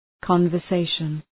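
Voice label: "conversation", English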